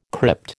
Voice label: "crypt", American